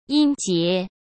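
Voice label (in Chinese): "音节", Mandarin Chinese; hsk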